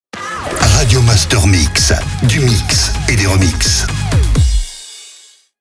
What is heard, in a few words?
Jingle Radio Master MIX - MEDIUM; Habillage